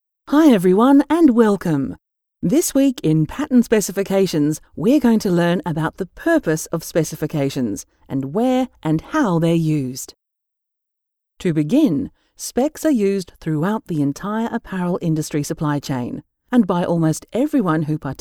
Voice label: online education

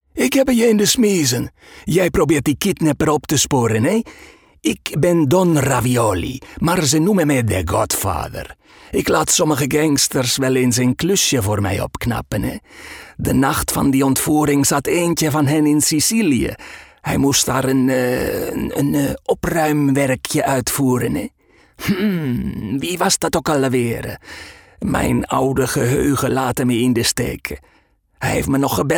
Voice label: character maffioso

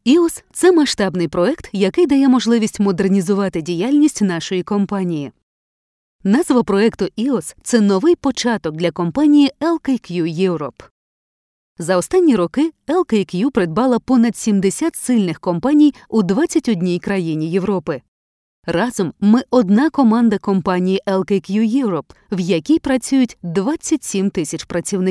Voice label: Ukrainian corporate

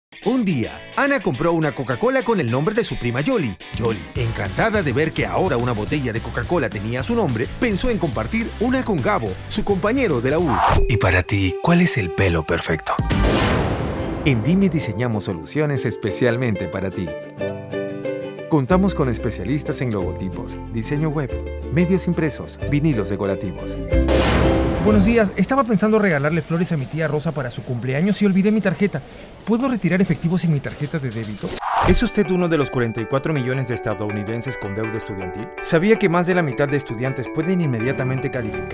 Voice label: commercials

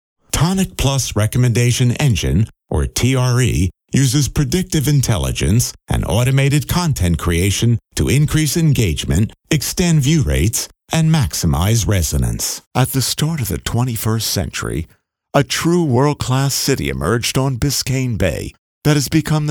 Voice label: commercial and trailer dry

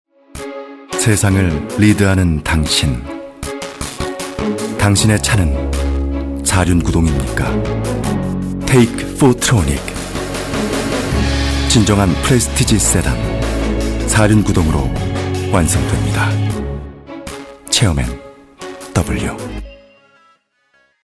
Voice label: advertisement Korean

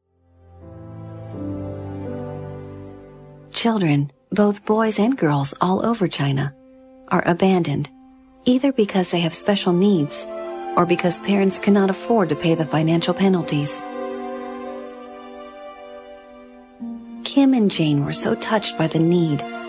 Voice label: soft style documentary

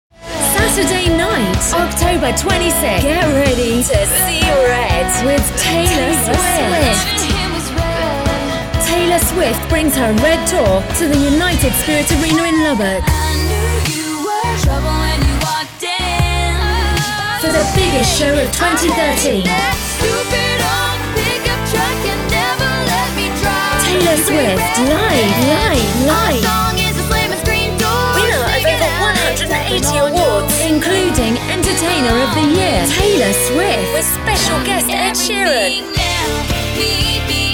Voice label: Promo

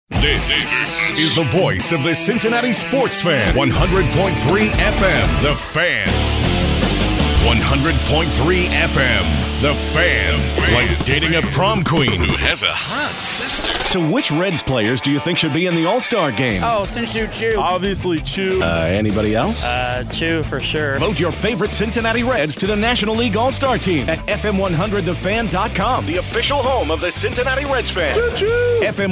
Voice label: Imaging; TheFAN

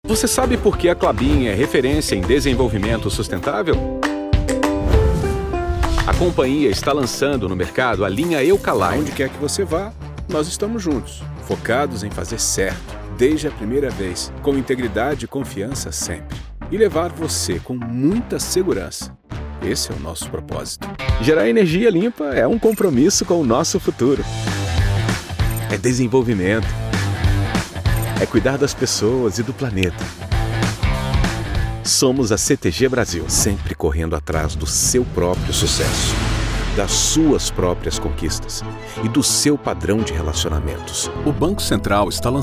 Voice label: INSTITUTIONAL

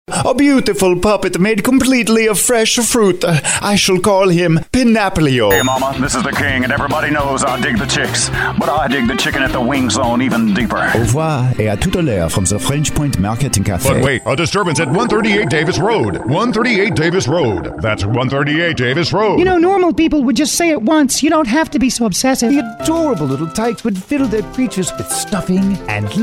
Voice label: ENGLISH CHARACTER

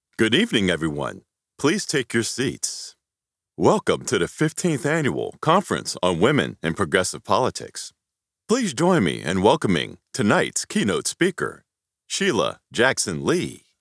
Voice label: vog announcer

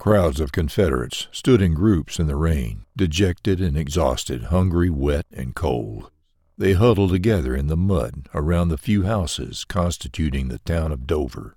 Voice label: Audiobook

